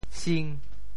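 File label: "sing5", Teochew; 潮州; 见卵哩dên6，见神哩下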